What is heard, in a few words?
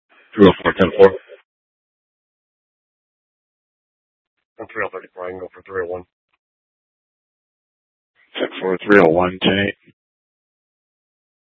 PD Dispatch